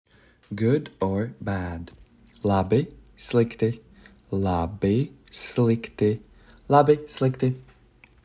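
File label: "Good, Bad", Latvian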